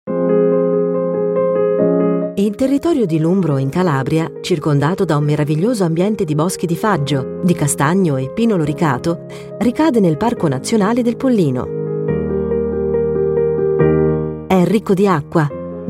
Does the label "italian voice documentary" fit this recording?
Yes